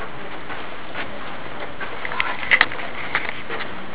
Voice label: whisper why

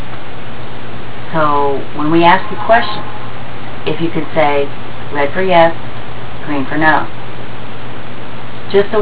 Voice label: attic male voice

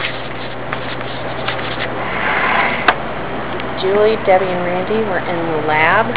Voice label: whisper hey

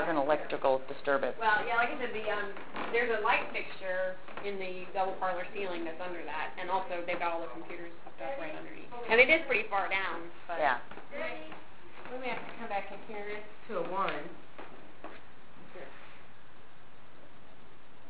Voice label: first floor young female

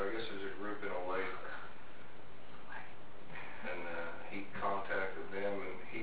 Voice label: male voice whisper go away; axemurderhouse

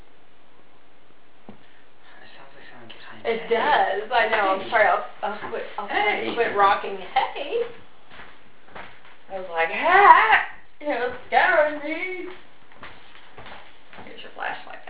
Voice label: inaudiable voice

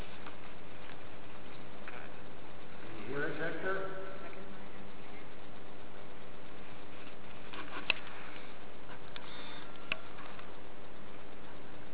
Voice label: temple hear whispered voices in background

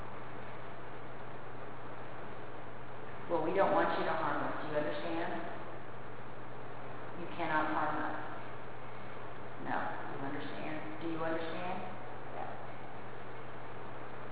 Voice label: male answers at end evp; summit ridge op rm 3

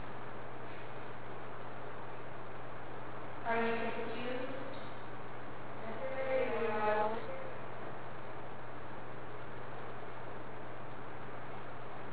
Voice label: female voice anyone else here evp; summit ridge op rm 3

